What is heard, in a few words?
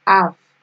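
"half", Nigerian Pidgin, female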